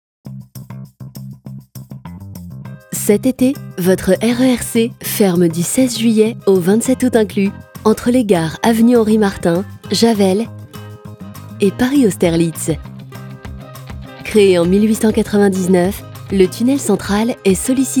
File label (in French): informative douce; SNCF